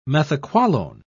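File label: "methaqualone", English, British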